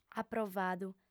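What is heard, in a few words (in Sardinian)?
"aprovadu", female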